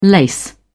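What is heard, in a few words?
"lace", British English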